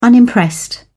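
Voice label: "unimpressed", British English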